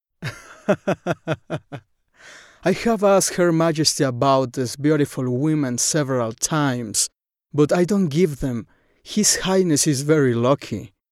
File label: voice range 20 35